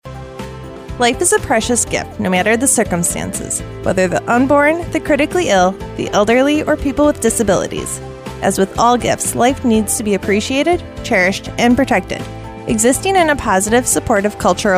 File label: radio ad prayer service; JAN1924